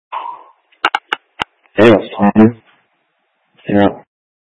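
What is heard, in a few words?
Fire Dispatch, scanner audio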